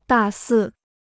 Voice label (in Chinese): "大肆", Chinese; dà sì; wantonly